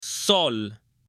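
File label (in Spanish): "sol", Spanish, male; OBJETOS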